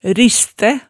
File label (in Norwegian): "ristæ", hemsedalsmaalet, tale